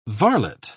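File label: "varlet", British English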